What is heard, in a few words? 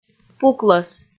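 "пуклӧс", Komi, female; kpv; audio dict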